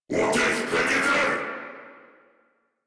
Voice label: Player Spotted, Battle Barks